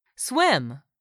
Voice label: "swim", English; 3000 words

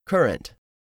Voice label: "CURRENT", english; 3000 words

